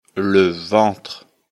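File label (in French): "ventre", French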